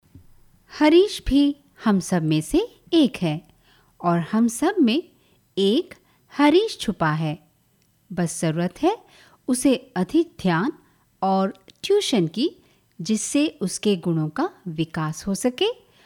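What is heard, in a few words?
Hindi, female, learning centre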